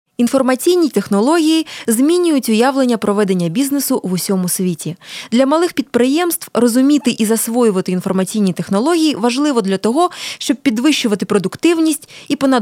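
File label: Ukrainian; Ukrainian / voice overs; foreign languages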